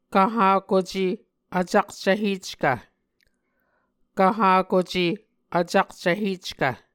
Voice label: "kvhakuce vcakcvheckv", Muscogee; Mvskoke Words with Voicing Consonants; Martin, Jack B., and Margaret McKane Mauldin. 2023. A Dictionary of Creek/Muskogee; SIL International